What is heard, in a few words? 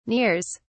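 "nears", British English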